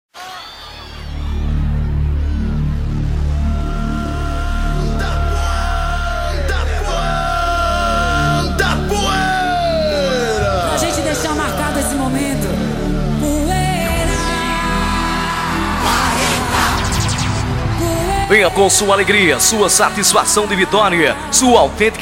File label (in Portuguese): chamada comicio; comicio de luiz